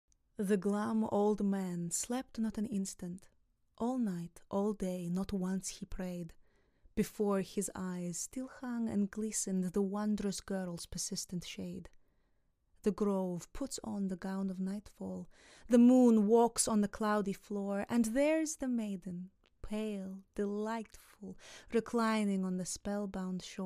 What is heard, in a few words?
English Russian accent